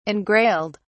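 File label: "engrailed", British English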